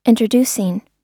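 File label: "introducing", US English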